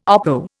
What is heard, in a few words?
"oppo", Indian